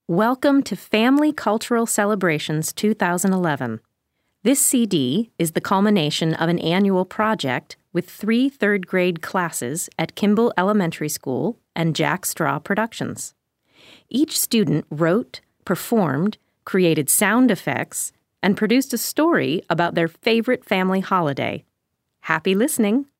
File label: Opening Narration